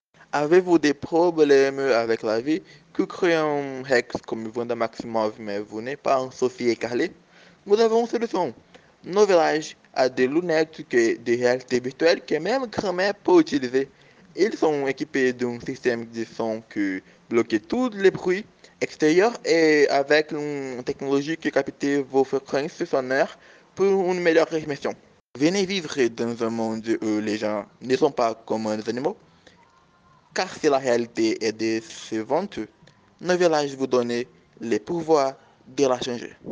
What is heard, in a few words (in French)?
publicite correspondants